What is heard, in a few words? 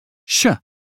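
British phonetics sound she; 英语音标发音（剑桥版）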